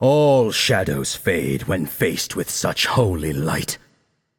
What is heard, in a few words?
taunt directed; nox; smite; merlin